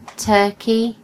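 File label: "turkey", British English; gcompris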